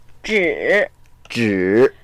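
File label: "zhi3", Mandarin Chinese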